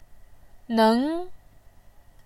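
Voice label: "neng", Mandarin, tone 2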